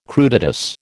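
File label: "crudités", American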